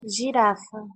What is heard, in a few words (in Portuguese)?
pronunciation pt "girafa"